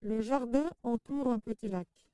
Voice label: gender Trans M F LPC